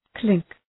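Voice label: "clink", English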